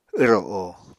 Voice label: "wro o", Apatani